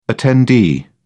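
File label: "attendee", English, British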